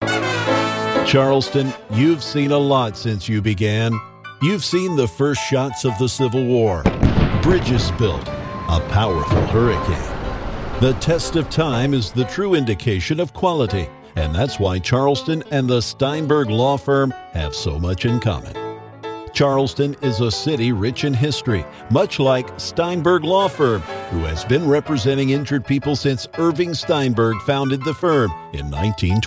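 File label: US English, Commercial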